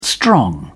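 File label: "strong", British English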